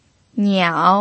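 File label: "niao", third tone, Mandarin Chinese pinyin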